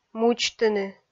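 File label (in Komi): "мудзтыны", Komi, female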